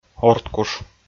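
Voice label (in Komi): "ордкуш", Komi, male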